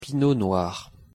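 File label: pronunciation french pinot noir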